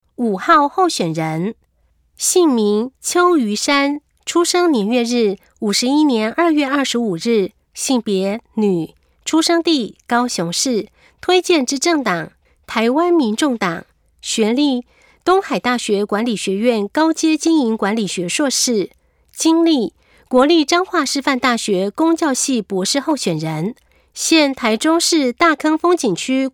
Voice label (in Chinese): 有聲公報, 國語; 臺中市; 市議員; 第08選區